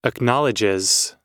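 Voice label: "acknowledges", US English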